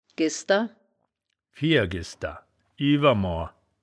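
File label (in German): "gesder", wittlicher woerterbuch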